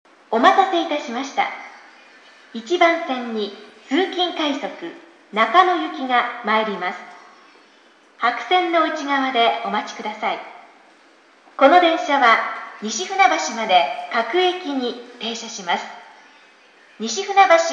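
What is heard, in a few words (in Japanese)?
駅放送, 旧放送 1番線 接近; toyo-katsutadai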